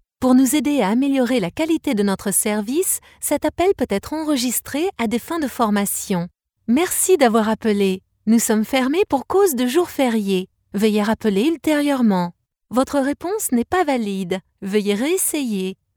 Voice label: french ivr